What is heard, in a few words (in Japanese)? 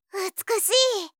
美しい, 相づち・反応系【ポジティブ】, ASMR